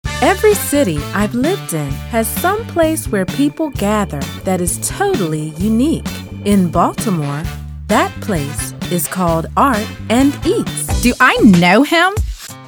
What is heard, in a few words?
Commercial